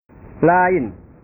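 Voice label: "lain", Indonesian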